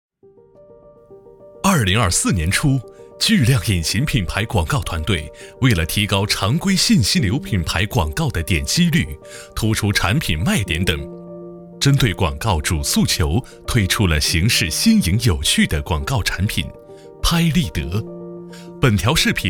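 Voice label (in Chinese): 男国大音-科技感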